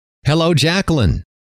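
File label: "hello", male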